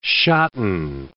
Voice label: "shotten", English